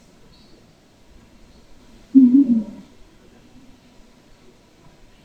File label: Female Voice whos in here